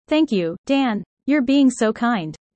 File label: user fast; Animal friends